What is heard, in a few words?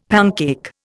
"pancake", Indian; Dictionary